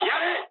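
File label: Japanese attack position mood high; War Thunder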